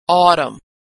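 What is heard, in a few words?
"autumn", American English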